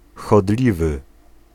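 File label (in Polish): "chodliwy", Polish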